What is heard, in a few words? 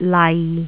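"lai6", Cantonese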